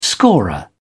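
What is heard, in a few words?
"scorer", British English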